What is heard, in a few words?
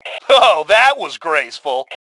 Taunt Sarcasm, Male; T2 Male 01